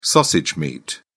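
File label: "sausage meat", English, British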